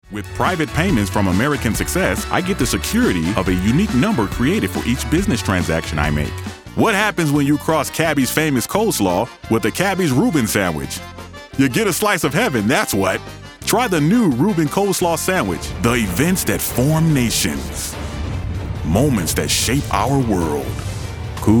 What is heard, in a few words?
commercial voiceover